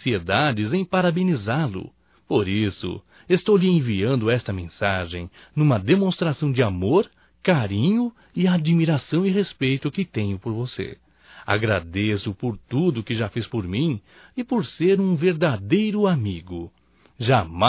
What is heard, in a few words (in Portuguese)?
ANIV TIO M, Telemensagens; Telemensagens 2017; Aniversarios